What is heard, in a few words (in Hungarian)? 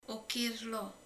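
"torok", Hungarian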